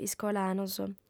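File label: "iscolanos", Sardinian, female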